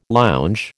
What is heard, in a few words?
"lounge", American